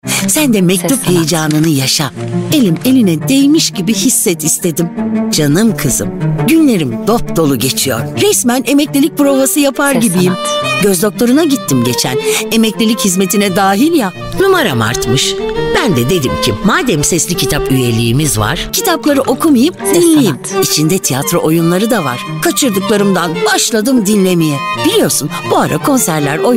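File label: Turkish, female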